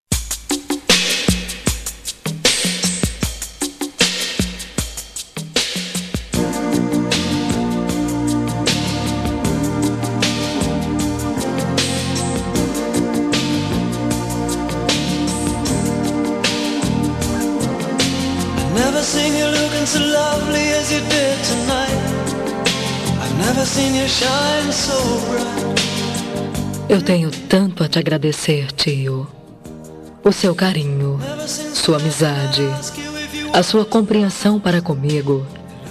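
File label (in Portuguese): Aniversário de Tio, female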